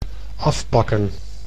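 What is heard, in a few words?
"afpakken", Dutch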